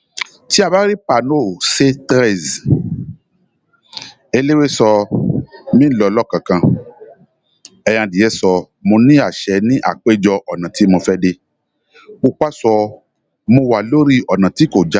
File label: YORUBA